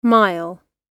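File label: "mile", British English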